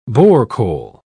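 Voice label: "borecole", British English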